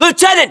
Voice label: rank lieutenant, US